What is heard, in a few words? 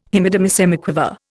"Hemidemisemiquaver", Indian